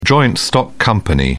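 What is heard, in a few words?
"joint-stock company", British English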